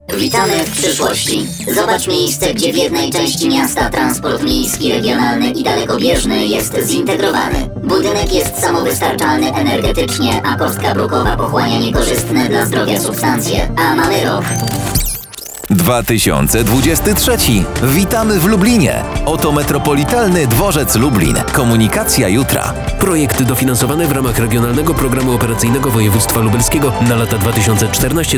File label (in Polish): spot radiowy; dworzec lublin